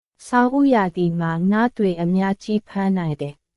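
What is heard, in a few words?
Myanmar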